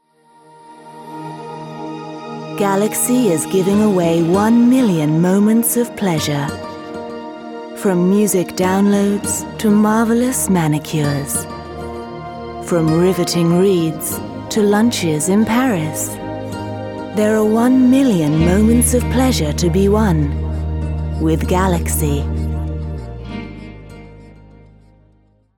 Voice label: Ad; Galaxy